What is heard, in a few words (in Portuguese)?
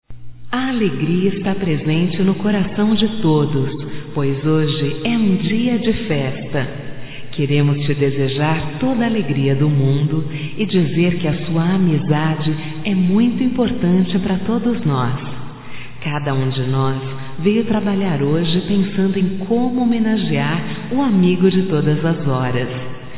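voz - Aniversário colega de trabalho, fem; Telemensagens 2017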